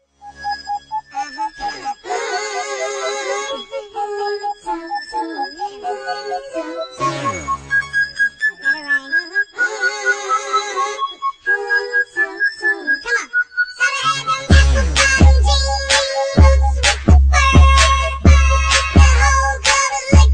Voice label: Low chipmunks